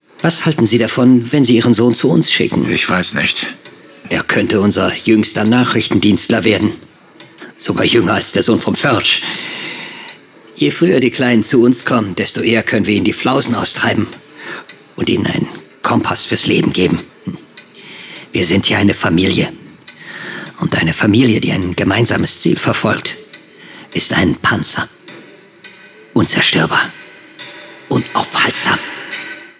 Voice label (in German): hoerspiel souveraen